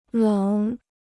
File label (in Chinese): "冷", Chinese